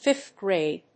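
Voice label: "fifth grade", English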